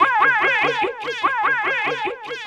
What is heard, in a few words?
Male FX Vocals; Houseworx